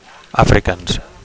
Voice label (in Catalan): "afrikaans", Catalan; (m) Afrikaans